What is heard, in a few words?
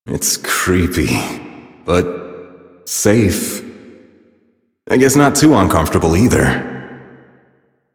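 Citizen Male, English; Warframe; Mall; Citizens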